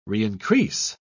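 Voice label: "reincrease", British English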